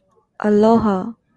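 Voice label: "aloha", Japanese, female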